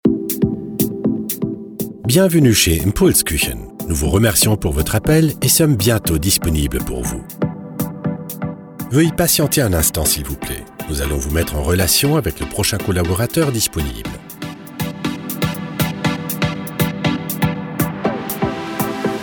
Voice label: french ivr